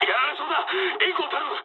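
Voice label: aircraft damaged mood low, speech japanese; War Thunder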